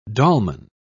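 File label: "dolman", British English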